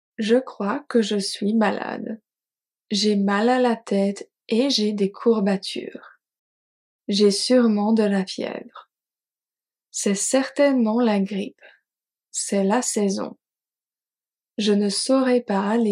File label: French Speaking Practice; Je suis malade